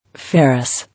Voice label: "Ferrous", English